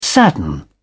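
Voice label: "sadden", English, British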